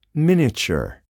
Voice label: "miniature", spanish

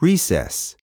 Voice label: "recess", spanish

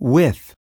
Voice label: "with", spanish

